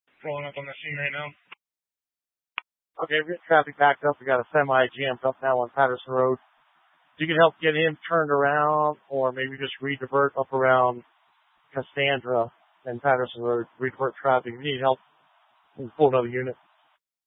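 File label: Incident Tac 3, Scanner audio recording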